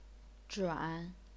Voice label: "zhuan3", chinese pinyin

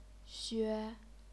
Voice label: "xue1", chinese pinyin

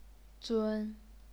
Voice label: "zun1", chinese pinyin

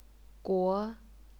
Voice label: "guo2", chinese pinyin

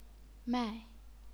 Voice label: "mai4", chinese pinyin

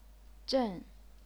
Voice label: "zhen4", chinese pinyin

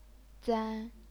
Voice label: "zan1", chinese pinyin

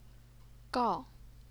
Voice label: "gao4", chinese pinyin

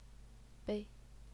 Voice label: "bei0", Chinese pinyin

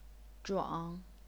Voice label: "zhuang3", chinese pinyin; 奘

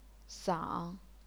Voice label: "sang3", chinese pinyin

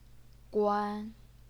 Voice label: "guan1", chinese pinyin; 莞; 棺; 倌; 鳏; 关; 官; 冠; 观; 矜; 纶

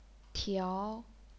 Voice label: "tiao2", chinese pinyin

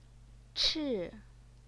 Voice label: "chi4", Chinese pinyin

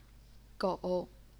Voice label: "gou3", chinese pinyin

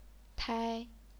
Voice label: "tai1", chinese pinyin

